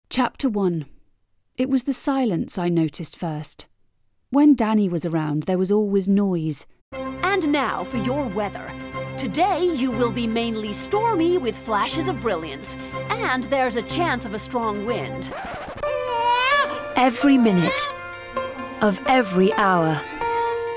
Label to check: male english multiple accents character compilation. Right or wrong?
Wrong